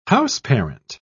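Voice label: "houseparent", British English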